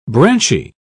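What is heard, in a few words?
"branchy", British English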